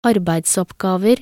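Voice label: "arbeidsoppgaver", Norwegian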